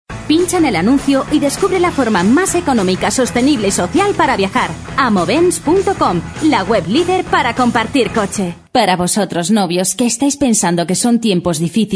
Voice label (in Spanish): MUJER